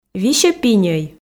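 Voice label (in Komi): висьӧ пиньӧй, Komi, female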